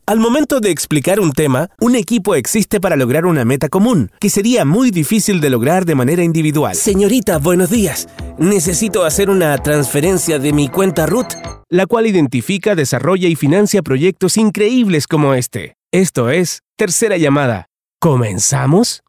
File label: Spanish Latin